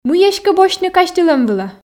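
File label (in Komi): мый эськӧ босьтны казьтылӧм вылӧ, Komi, female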